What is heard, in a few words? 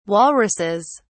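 "walruses", English, British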